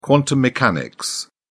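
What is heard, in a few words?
"quantum mechanics", English, British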